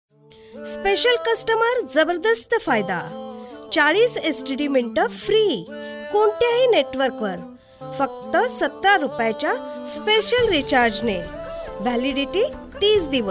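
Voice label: Marathi female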